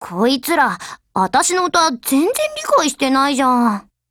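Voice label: FIX, Japanese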